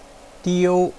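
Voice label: "diu1", Mandarin Chinese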